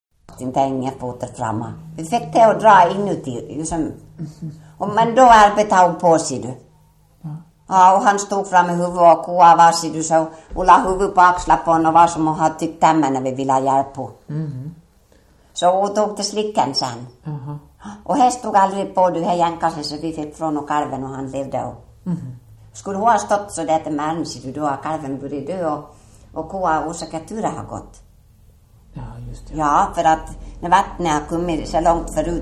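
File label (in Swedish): Ål Dalarna, dialekter; Folkminnen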